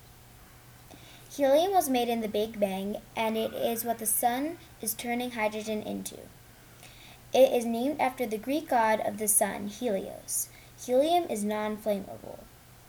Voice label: helium narration